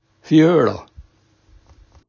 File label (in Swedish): "fjola", sittplats avträde, daladialekt